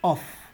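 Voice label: "of", Nigerian Pidgin, male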